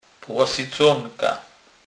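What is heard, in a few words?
"plosyconka", Polish, Kurpie dialect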